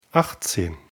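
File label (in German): "achtzehn", German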